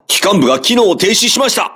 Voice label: voice message lost propulsion, crew ships japanese